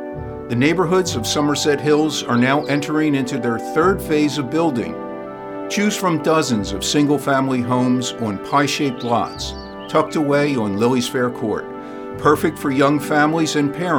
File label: Promotion